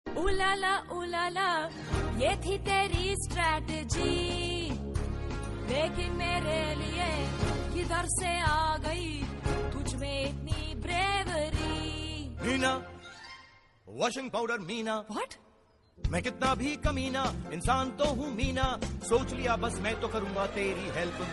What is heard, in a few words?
Comedy Ringtone; Deepika Padukone; Chennai Express